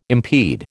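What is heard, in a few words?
"impede", American English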